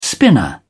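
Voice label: "spinner", British English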